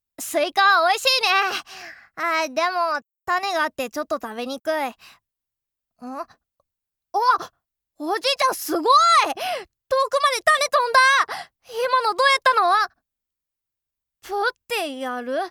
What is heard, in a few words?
boy, voice